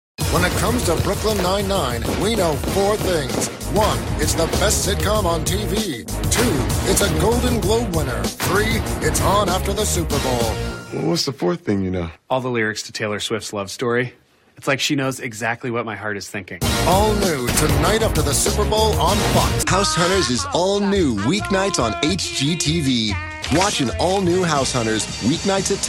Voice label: Comedy Family Promo